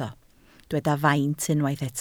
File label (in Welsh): "faint", Welsh